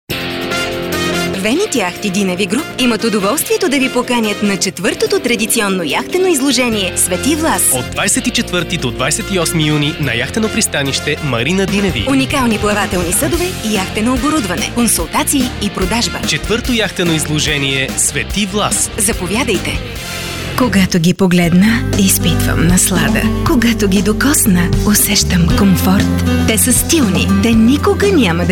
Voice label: Bulgarian Commercials

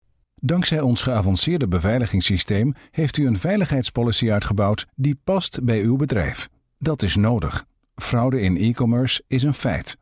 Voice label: Dutch Corporate

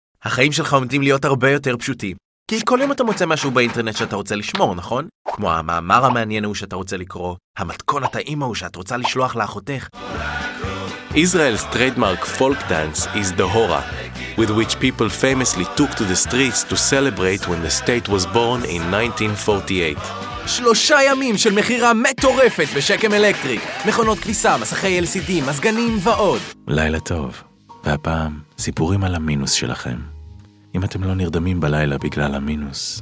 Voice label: Hebrew General

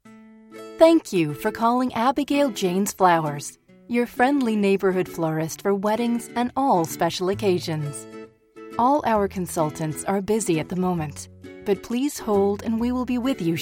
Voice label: phone IVR

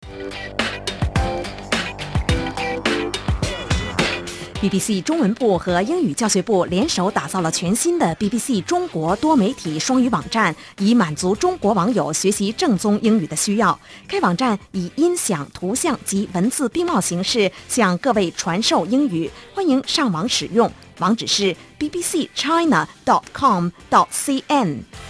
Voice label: Chinese Mandarin

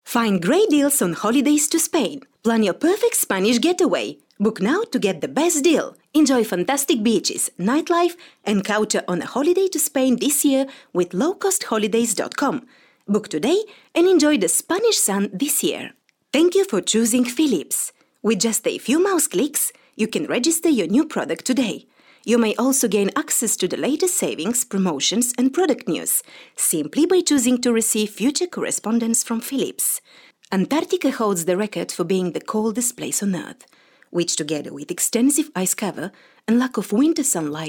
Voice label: Bulgarian English Accented

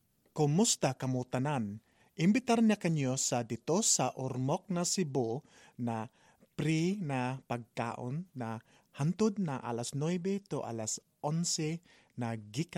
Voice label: Cebuano General

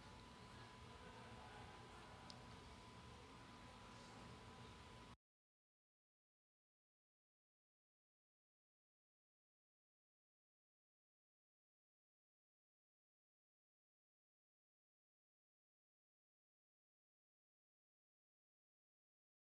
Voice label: English with Greek accent

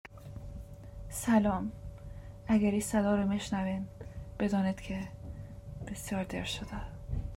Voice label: Dari General; GoLocalise

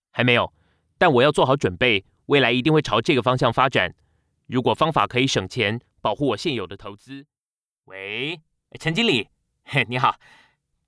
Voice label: Chinese Mandarin Characters

